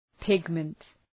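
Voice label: "pigment", English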